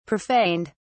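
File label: "profaned", English, British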